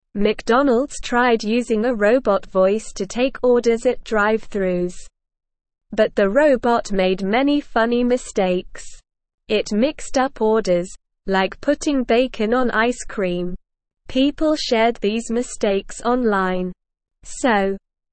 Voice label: English news reading, beginner, slow; English Newsroom; McDonalds Robot Voice Made Funny Mistakes